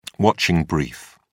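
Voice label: "watching brief", British English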